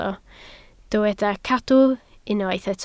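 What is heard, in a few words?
"cadw" Welsh, spoken word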